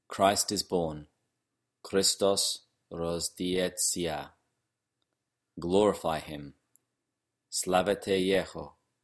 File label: Christ Is Born Church Slavonic